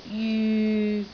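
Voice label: "jyu6", Cantonese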